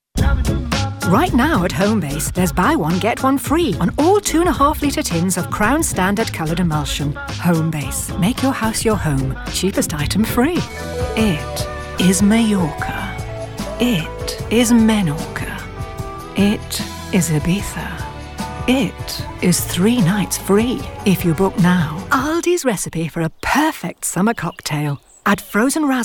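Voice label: English British, female, commercials all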